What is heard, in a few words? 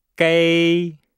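"gei", Chinese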